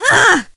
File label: hurt